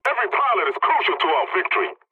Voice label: pilot mission start, english; War Thunder